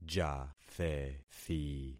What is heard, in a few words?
"jathethi", concat syllables; Affixing experiment